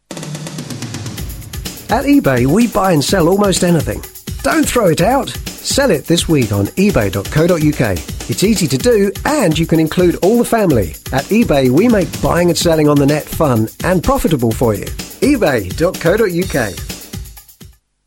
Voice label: Advert